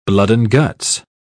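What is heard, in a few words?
"blood-and-guts", British English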